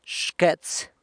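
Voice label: "xquets", kidney, San Lucas Quiaviní Zapotec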